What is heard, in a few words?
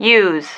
"use", female